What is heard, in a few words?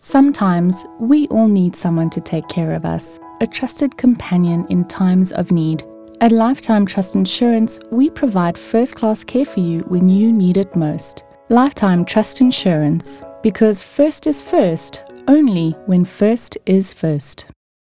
Soft Sell